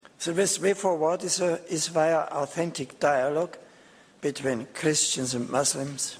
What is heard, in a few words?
dialog